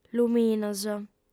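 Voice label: "luminos", female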